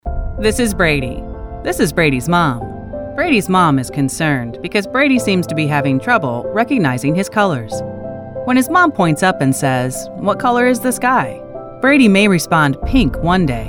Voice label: Explainer